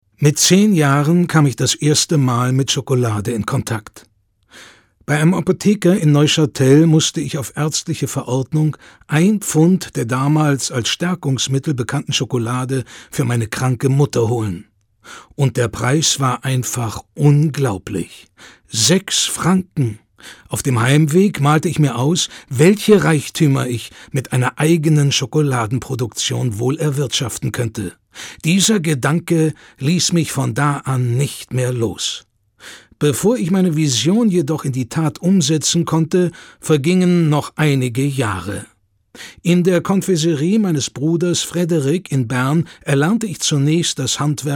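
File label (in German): Schauspiel Freundlich; Fremdtake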